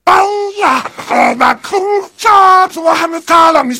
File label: heavy battlecry